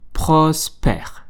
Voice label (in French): "Prospère", learn French